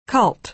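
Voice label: "cult", American English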